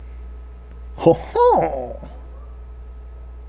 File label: french